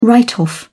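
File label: "write off", English, British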